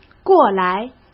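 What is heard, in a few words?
"过来", Mandarin Chinese; chinese-flashcards; hsk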